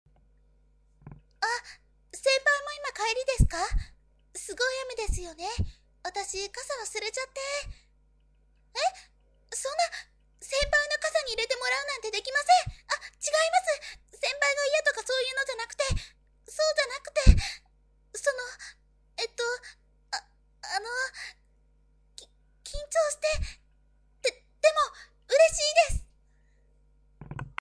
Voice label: girl